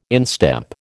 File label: "instep", American